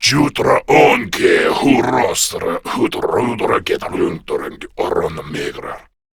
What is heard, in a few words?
Patrol, Male